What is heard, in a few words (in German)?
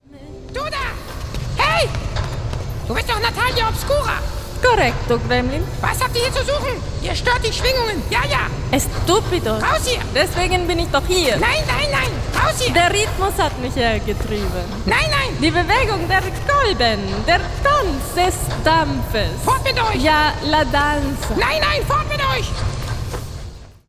Hoerspiel, Drachenflug